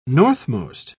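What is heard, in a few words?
"northmost", British English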